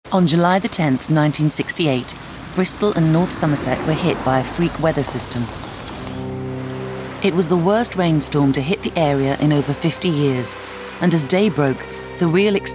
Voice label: uk narration

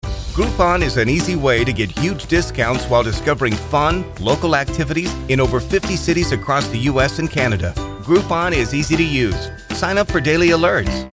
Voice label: us explainer

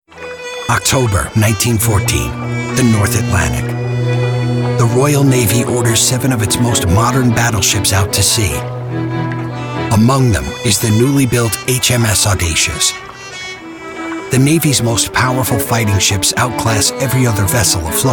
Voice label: us narration, mann; engelsk-us

